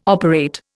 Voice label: "operate", Indian

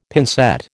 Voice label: "pincette", American

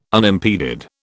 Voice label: "unimpeded", British

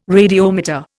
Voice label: "radiometer", Indian

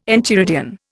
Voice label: "enchiridion", Indian

